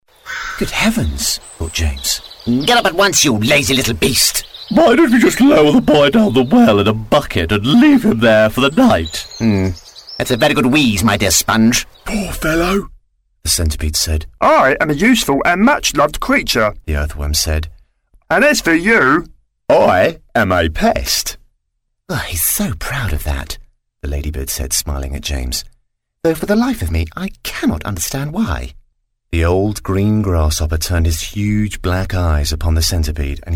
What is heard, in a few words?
Character Narration